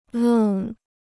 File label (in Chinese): "愣", Chinese